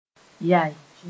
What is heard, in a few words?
"yae", Moore